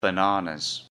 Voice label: "bananas", English; FRUIT